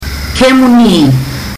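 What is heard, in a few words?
"kemuni", Fijian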